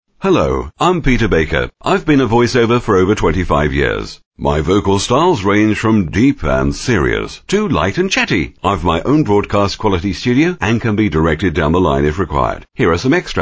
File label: American English, M